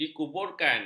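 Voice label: "dikuburkan", Malay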